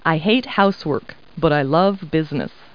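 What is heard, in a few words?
woman; Talking Clip Words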